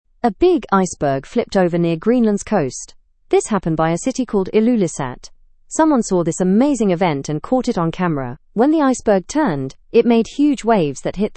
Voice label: learn english through news lower intermediate fast; huge iceberg flips near greenland coast